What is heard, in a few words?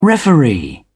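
"referee", English, uk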